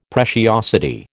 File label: "preciosity", American